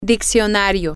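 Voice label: dictionary, spanish, female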